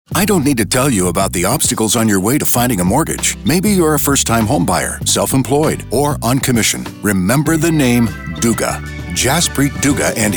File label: radio ad